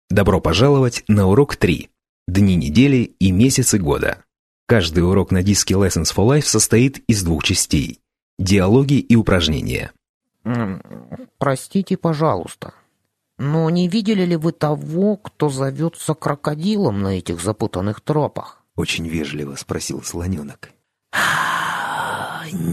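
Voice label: Russian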